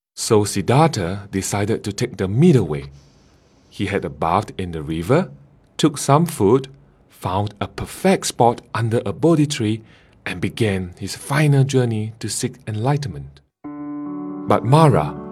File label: Narration